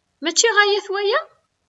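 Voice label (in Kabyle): Mačči ɣaya-t waya?, Kabyle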